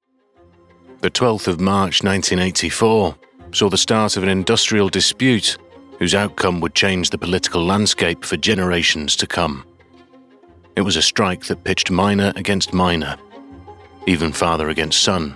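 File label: documentary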